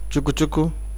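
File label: "chukuchuku", Nigerian Pidgin, male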